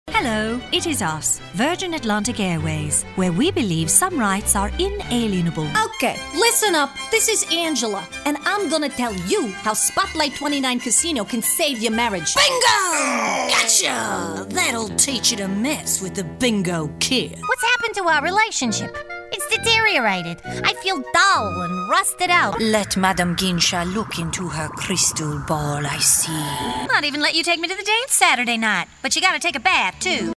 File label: character, female voice